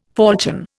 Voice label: "falchion", Indian